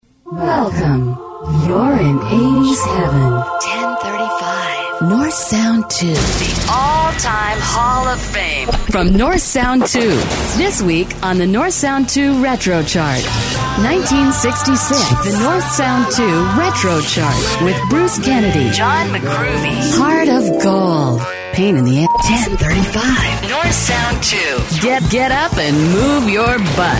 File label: Sweepers; Northsound II Scotland